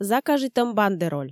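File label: "закажитӧм бандероль", Komi, female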